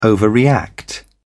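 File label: "overreact", British English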